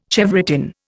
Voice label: "chevrotain", Indian